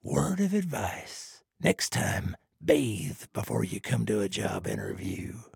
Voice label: trader male dislike quest accepted